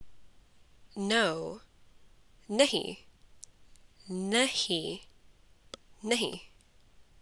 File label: Punjabi No